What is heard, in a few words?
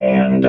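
"and", robot voice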